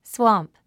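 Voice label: "swamp", English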